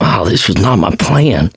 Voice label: going to die, survivor voice mechanic